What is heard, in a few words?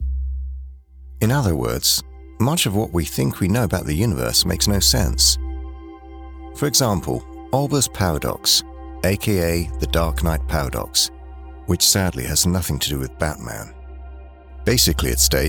british voice over explainer science space